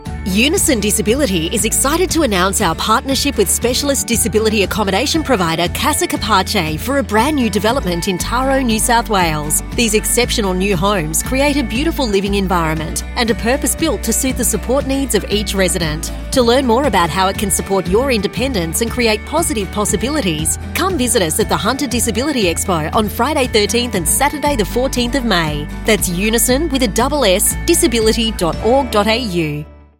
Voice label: radio ad